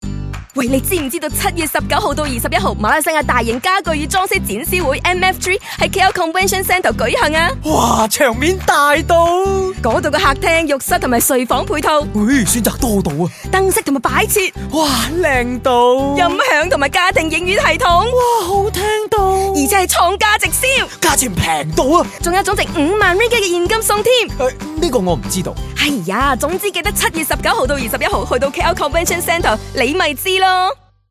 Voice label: Cantonese

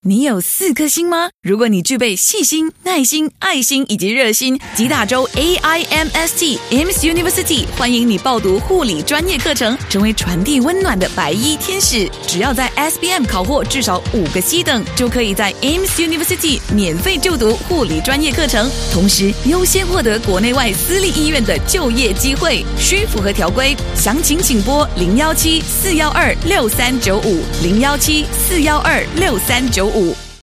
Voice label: Friendly

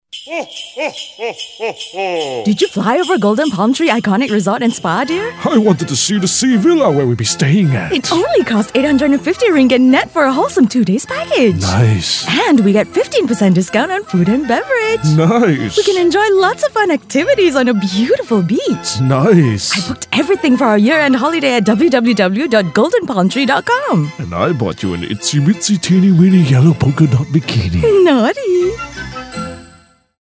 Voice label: Eng Version; Golden Palm Tree Resort

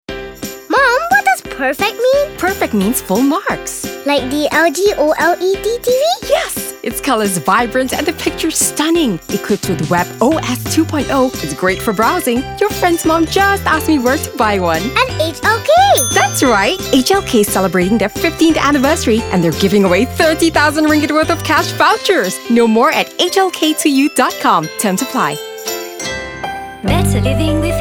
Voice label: ENG; HLK Electrical

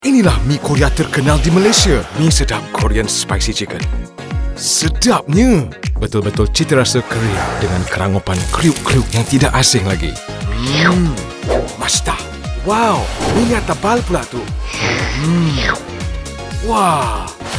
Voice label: Malay, Commercial Suave, Smooth, Korean Accent; BM : Mie Sedaap Korean Spicy Chicken Commercial Suave, Smooth, Korean Accent

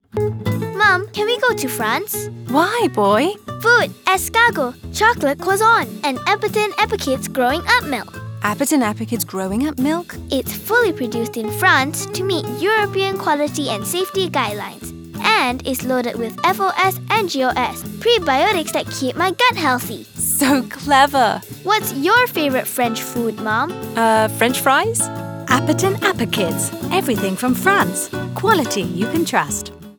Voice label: English; Appekids

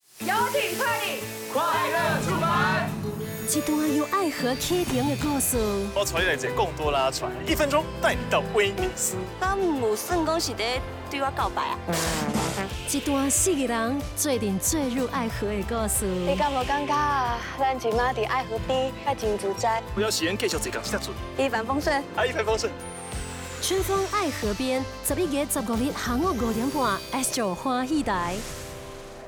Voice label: Hokkien promo; hua hee tai

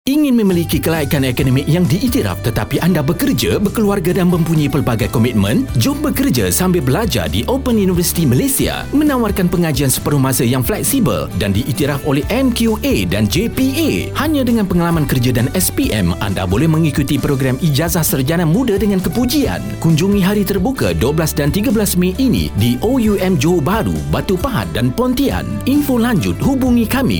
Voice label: FM COMM

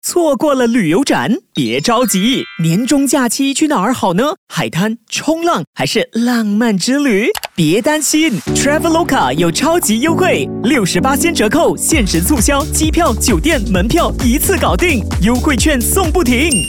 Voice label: Mandarin - Engaging, Upbeat, Friendly; Traveloka

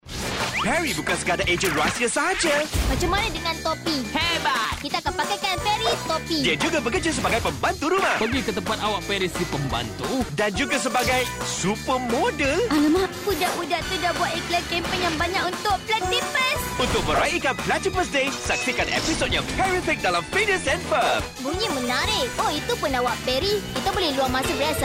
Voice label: Promo; Phineas and Ferb

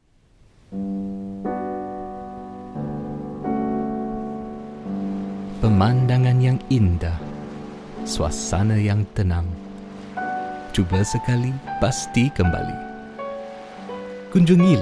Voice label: Malay

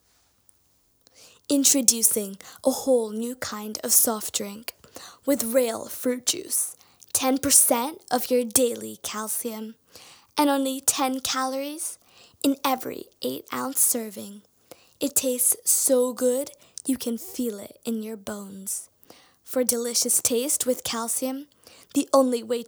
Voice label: VO Voice; Calcium Drink

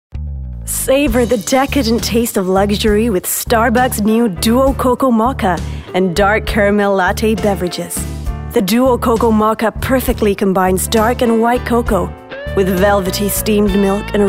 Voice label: PROMO ENG; STARBUCKS

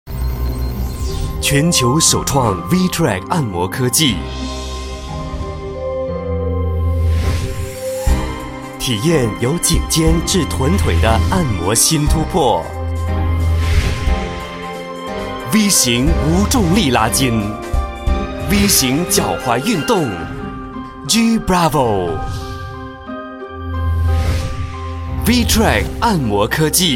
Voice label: MAND; GINTELL G Bravo

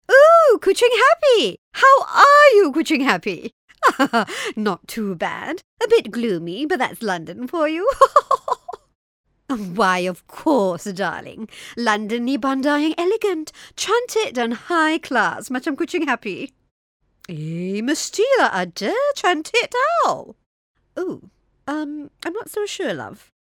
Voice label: British Malay; BSN

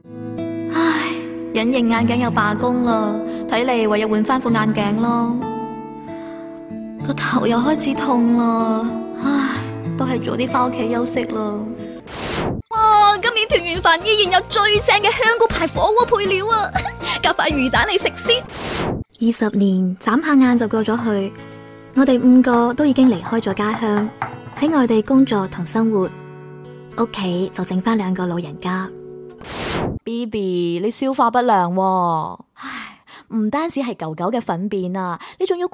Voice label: Cantonese Mix

